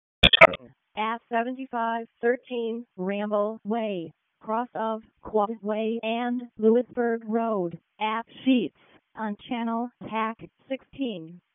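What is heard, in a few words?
Raleigh Fire Department ALERT, p25; wake p25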